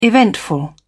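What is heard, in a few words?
"eventful", British English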